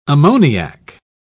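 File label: "ammoniac", English, British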